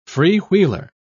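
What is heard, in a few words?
"freewheeler", British English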